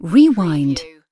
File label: UK female rewind; playcontrols; lynne publishing